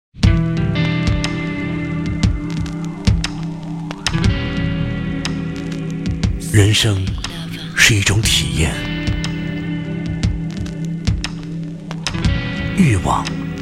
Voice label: 宣传片 地产 稳重; 万银国际

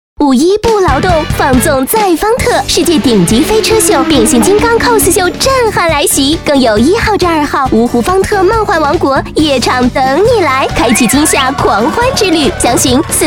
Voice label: 广告 促销 五一 游乐园 甜美; 方特

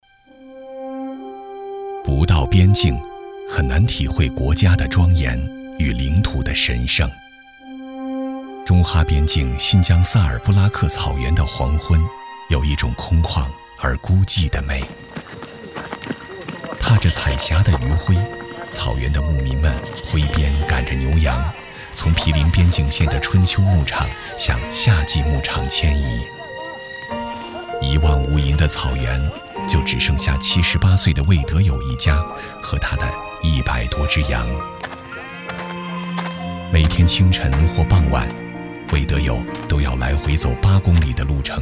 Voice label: 纪录片 人物 讲述; 戍边人

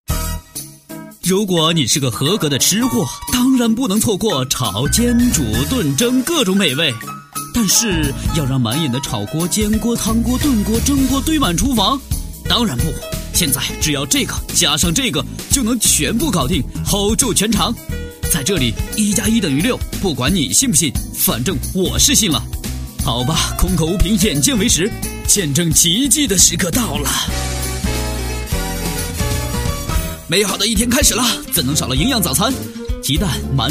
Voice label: 专题 产品 活力; 全能料理多功能套装锅

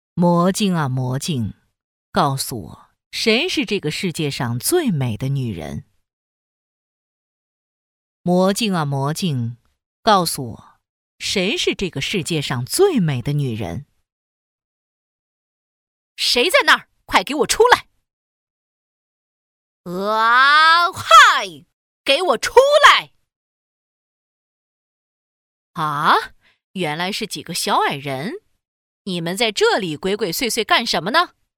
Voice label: 动画片 巫婆王后

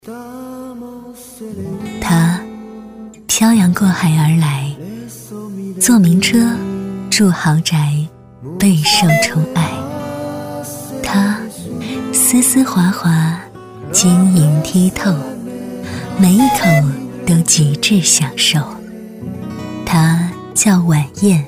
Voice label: 女 微电影 华贵知性; 华贵知性碗燕

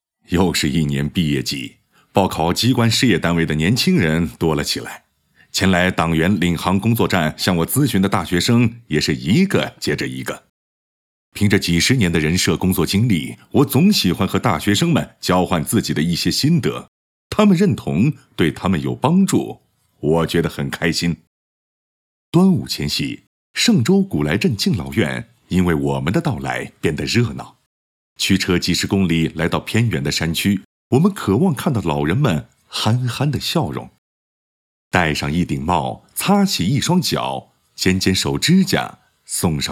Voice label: 动画 角色 专家角色, 男国

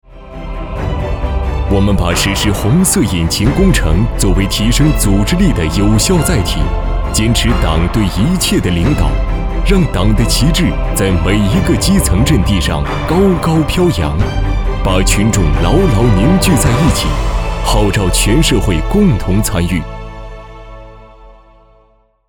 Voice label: 男 专题 稳重; 红色引擎工程

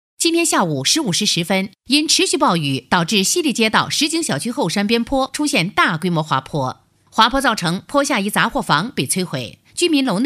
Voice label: 暴雨新闻; 专题 新闻

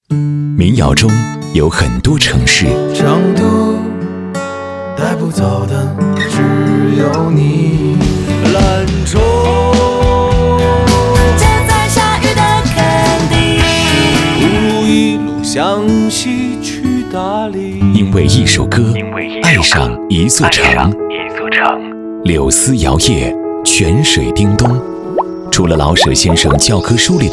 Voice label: 广告 片头片花 磁性, 男国; 民谣济南征集版

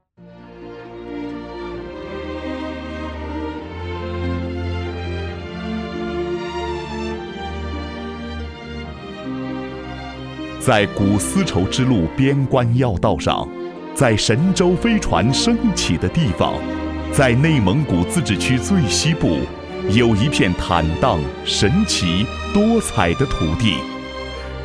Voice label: 抒情; 阿拉善

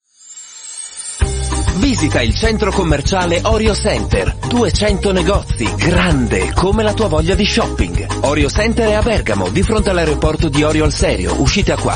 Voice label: 意大利语男 外语 小语种

